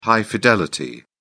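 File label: "high-fidelity", English, British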